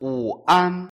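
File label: "wu an", Chinese pronunciation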